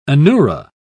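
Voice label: "anura", British English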